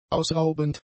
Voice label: "ausraubend", German; adjectives